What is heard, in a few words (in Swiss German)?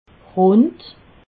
"rund", alsace